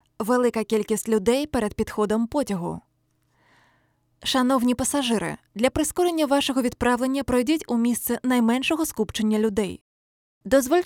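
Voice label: Ukrainian, female, corporate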